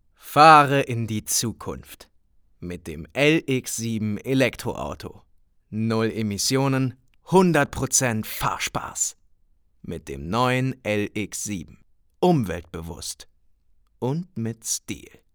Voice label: Werbung E Auto; LOFT VOICES

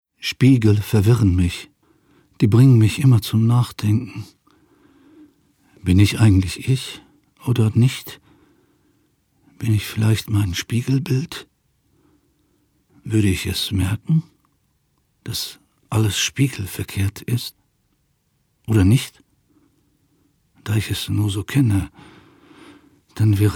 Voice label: Innerer Monolog

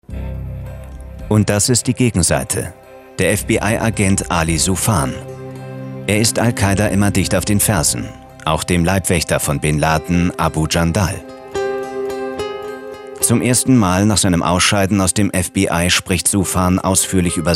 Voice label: kommentar

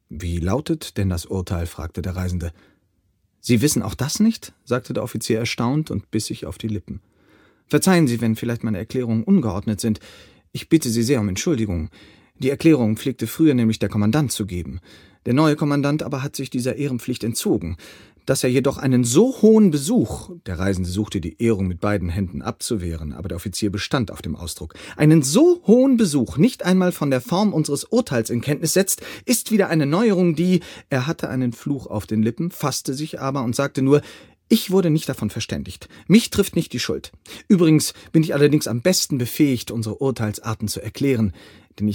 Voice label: Hoerbuch; Kafka; Strafkolonie

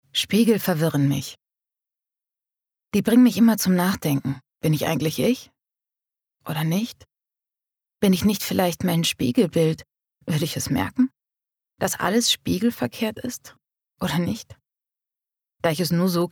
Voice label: Innerer Monolog; LOFT VOICES

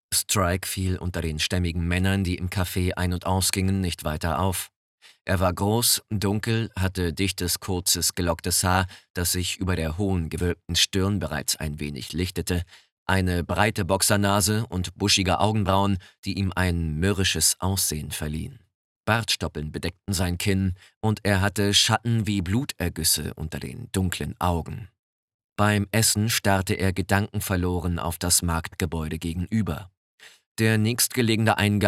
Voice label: Thriller, Audiobook (Hörbuch), German

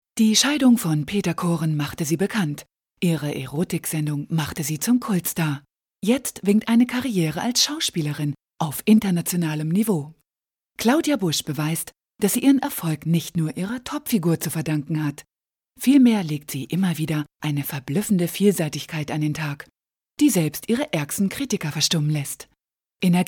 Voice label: kommentar

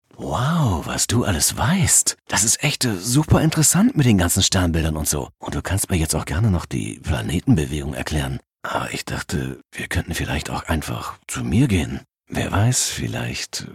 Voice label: synchron

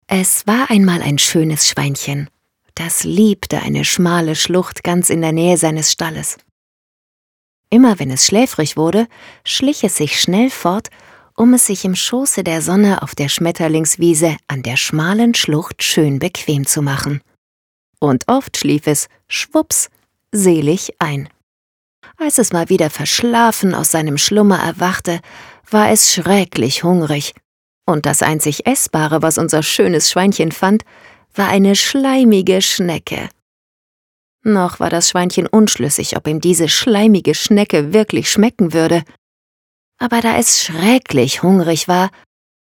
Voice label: Hoerbuch Kinderbuch Fabel

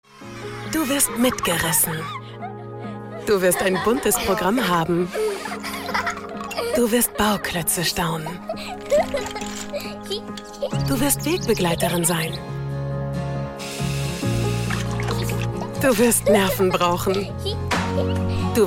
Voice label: Werbung Kita; Johanniter

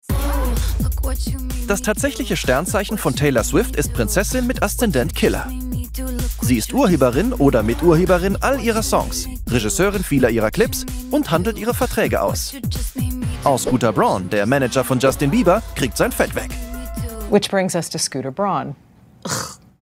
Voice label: Doku de Kommentar; ARTE; TAPE

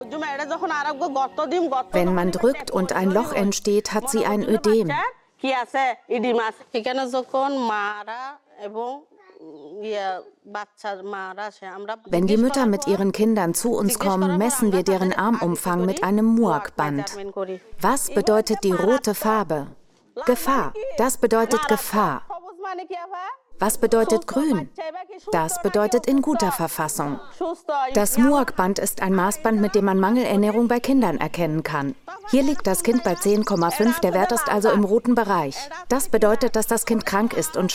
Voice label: Voice Over; Aktion gegen den Hunger

